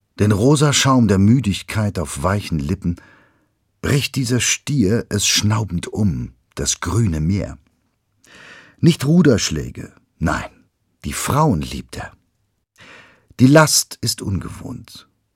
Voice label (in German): Dynamisch